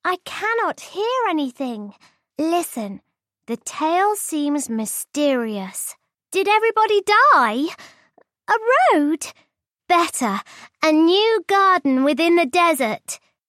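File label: English female 9-11yr Girl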